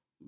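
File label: "balet", Malay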